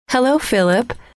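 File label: hello, female; trainer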